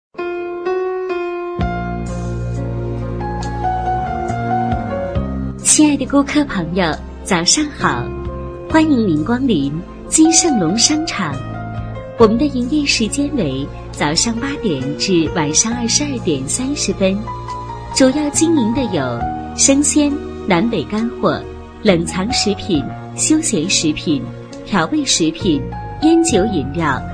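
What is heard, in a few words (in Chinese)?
女抒情 迎宾辞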